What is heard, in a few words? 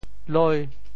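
"loi5", Teochew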